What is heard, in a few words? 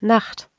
"naht", Proto-Germanic